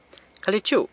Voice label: "Cali chiu", San Lucas Quiavini; Where are you going